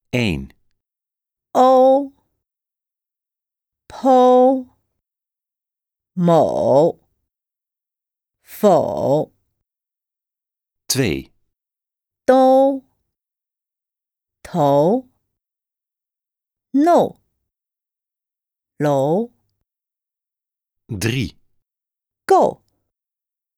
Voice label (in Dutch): uitspraakoefening